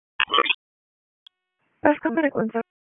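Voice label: EMS DISP, radio; wake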